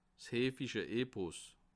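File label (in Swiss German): "heefische", Bas Rhin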